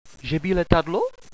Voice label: airplane, radio bot

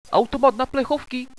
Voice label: vending machines, radio bot

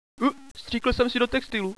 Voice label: doomed, hostage

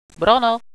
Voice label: gate, radio bot

